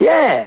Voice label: "yeah" old jazz man; ooh ahh

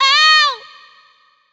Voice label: ow female; ooh ahh; ow ouch

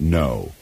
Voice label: "no" stern man, Voice; expletives

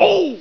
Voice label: "oh" male ow; ooh ahh

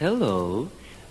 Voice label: "hello" toon; Voice; expletives

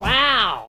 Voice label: "wow" cartoon

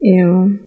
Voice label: "ew", drag; ooh ahh

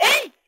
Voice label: ay, 8 bit fighting gal; Voice

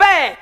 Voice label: "hey", female

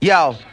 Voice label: "yo", Voice

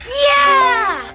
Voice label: "yeah" kid; ooh ahh

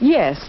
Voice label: "yes", female

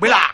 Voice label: "blah", Voice; ooh ahh

